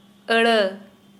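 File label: "word", Santali, female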